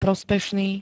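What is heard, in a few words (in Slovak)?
"prospešný", slovenské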